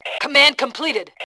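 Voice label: "completed", female voice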